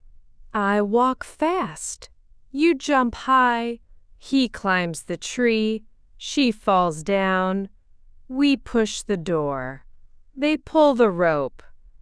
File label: AI speech